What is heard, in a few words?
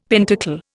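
"pentacle", Indian English; Dictionary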